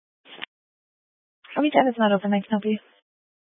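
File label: Sheriff Dispatch, scanner audio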